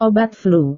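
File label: "obat flu", Indonesian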